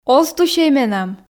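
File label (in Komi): оз тусьӧй менам, Komi, female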